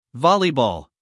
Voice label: "volleyball", us male; 1000 hours